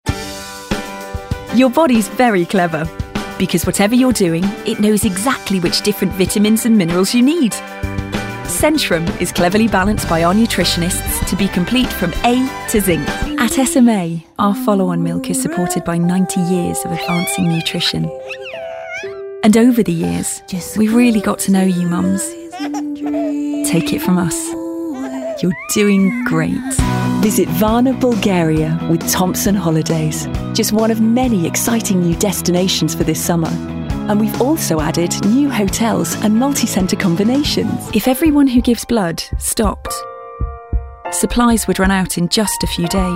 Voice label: Commercial Voice reel